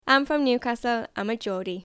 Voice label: Geordie, accents of english